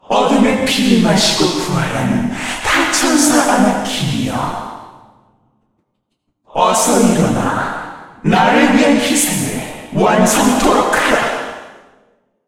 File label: cinematic voice; lineage2